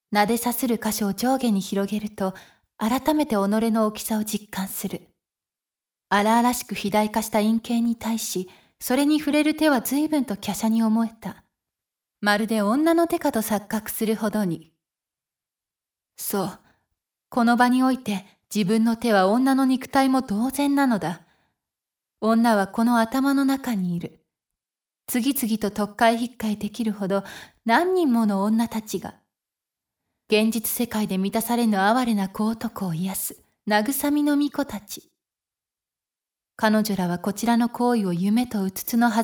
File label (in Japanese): ボイスドラマ, エフェクト Ver., ASMR; 己に愛を蓄えて